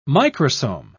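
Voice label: "microsome", British English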